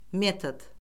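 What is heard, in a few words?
"metod", Azerbaijani; Orfoqrafiya Lüğəti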